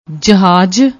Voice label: "jahaaj", Punjabi

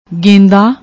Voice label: "genda", Punjabi

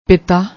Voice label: "pita", Punjabi

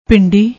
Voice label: "bhindi", Punjabi

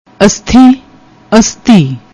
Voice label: "asthhi", Punjabi; ਅਸਥੀ

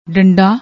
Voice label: "dandaa", Punjabi; ਡੰਡਾ

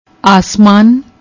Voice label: "asmaan", Punjabi

